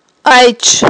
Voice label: "айч", aghul